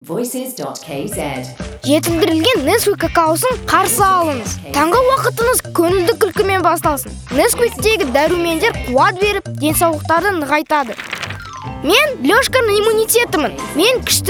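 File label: kid Kazakh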